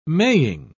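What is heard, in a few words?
"maying", British English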